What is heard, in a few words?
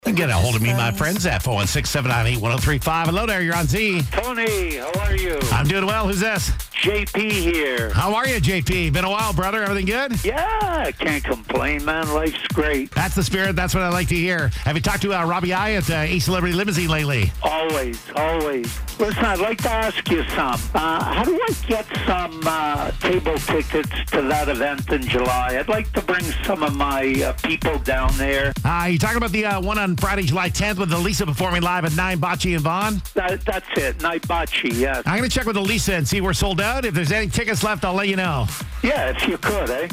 Phonecall